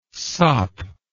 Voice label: "sop", English

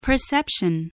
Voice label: "perception", English, voice

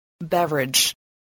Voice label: "beverage", English

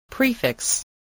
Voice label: "prefix", voice, spelling

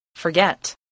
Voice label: "forget", English voice, spelling

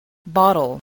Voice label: "bottle", spelling

